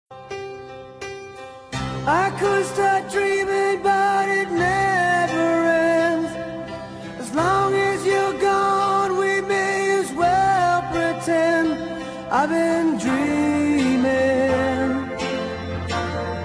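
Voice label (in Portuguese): Com Conquista, Voz Masculina; telemensagem aniversario conquista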